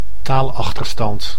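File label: "taalachterstand", Dutch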